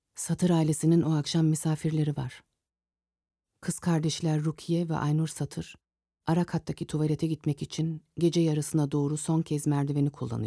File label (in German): doku voiceover tuerkisch